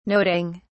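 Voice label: "noting", British English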